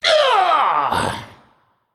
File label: Male Naga Death